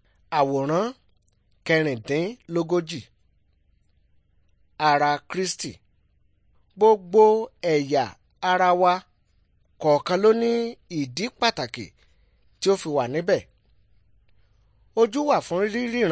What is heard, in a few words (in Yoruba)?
good news yoruba; bible